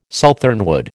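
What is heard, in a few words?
"southernwood", American English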